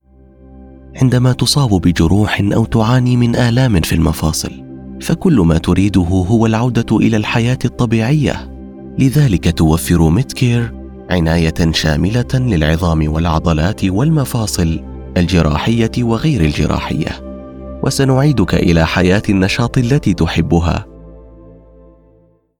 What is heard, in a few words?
Explainer Warm Caring Standard Arabic; Medcare